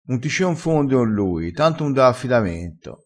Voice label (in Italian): "confondisi", crespinese